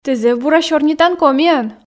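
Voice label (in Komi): "тэ зэв бура сёрнитан комиӧн", Komi, female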